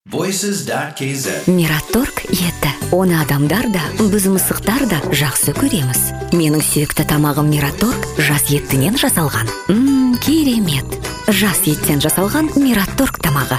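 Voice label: Kazakh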